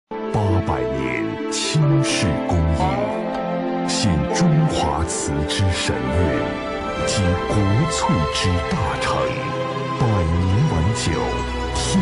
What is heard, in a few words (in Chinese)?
男 酒类广告 浑厚大气; 百年皖酒